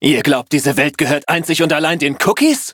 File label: loading, de; cookie run